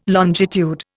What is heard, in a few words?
"longitude", Indian